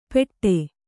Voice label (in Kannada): "ಪೆಟ್ಟೆ", Kannada